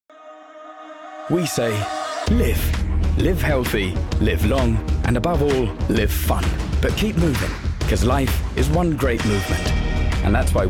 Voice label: ENGLISH Fitness Commercial; THE MIRAI

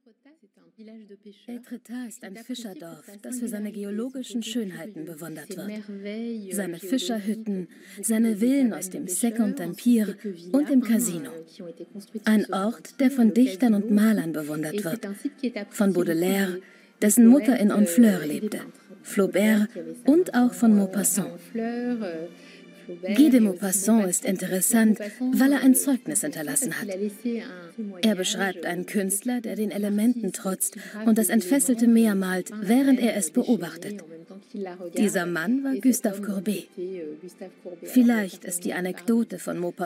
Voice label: Voice Over; arte; Die Malerei und das Meer

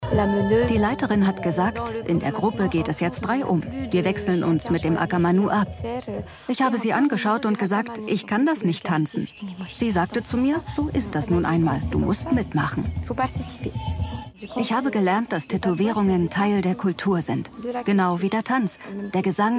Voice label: Voiceover; ARTE Tattoos Raetsel der Suedsee

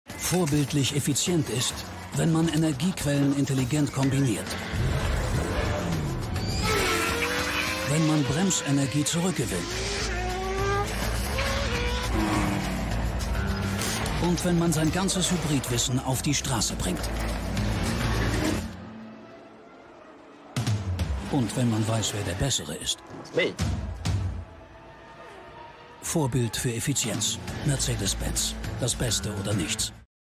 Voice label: Commercial; Mercedes-Benz

